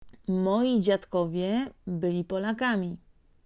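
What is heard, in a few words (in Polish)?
"moi dziadkowie", Polish; Vocabulario: frases y palabras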